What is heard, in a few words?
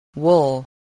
"wool", voice, english